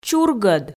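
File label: "чургӧд", Komi, female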